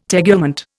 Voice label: "tegument", Indian